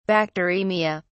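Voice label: "bacteraemia", British English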